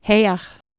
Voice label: "heah", Anglo Saxon